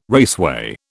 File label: "raceway", British